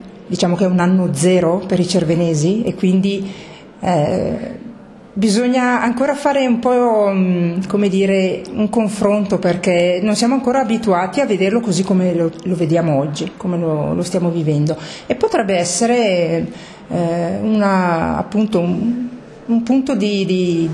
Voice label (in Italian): intervista breve